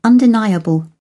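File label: "undeniable", British English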